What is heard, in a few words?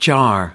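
"jar", American English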